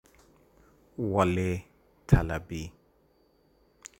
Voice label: pronunciation guide name